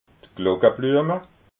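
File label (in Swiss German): "glockabluema", Alsatian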